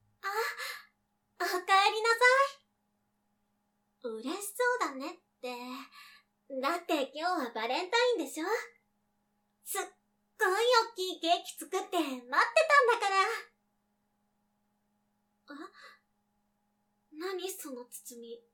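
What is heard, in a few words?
yandere, Japanese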